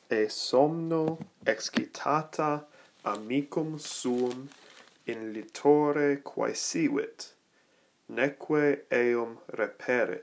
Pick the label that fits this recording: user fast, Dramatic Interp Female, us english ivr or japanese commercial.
Dramatic Interp Female